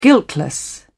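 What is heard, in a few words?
"guiltless", British English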